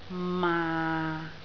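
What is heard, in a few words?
"maa6", Cantonese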